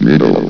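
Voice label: "middle", male synthesized announcer voice; blizzard; hlvox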